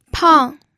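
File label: "pang", Mandarin Chinese, fourth tone; pinyin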